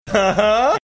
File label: excited uh huh